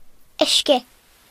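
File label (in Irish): "water", Irish; gcompris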